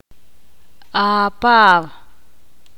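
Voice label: "Preso" Spanish, Ixil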